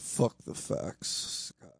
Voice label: fuck the facts, male spoken word; MALE MISC

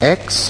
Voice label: "x", male British; Alphabet

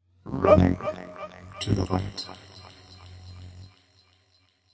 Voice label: processed male vox poetry bits; Robotic or Stuttery or Spooky

